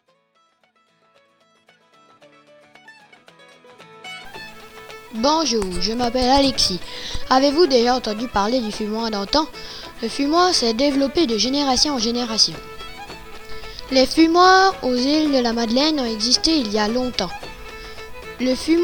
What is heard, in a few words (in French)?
audioguide